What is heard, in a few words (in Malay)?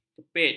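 "kepit", Malay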